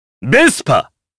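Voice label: Vox Japanese; Kain; Vespa; kingsraid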